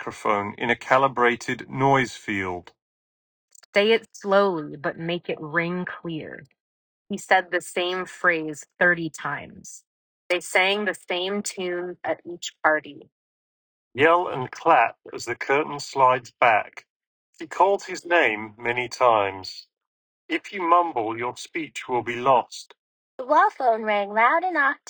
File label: with ANC Office; AirPods 4; active noise cancellation